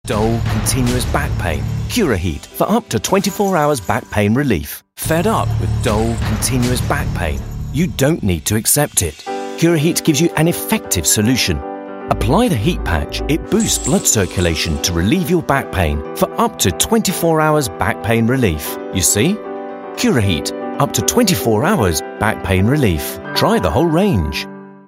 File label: commercial